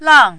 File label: "lang4", mandarin